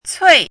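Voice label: "cui4", Mandarin Chinese syllable, pinyin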